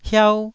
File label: "hiau1", taiwanese